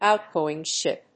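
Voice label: "outgoing ship", English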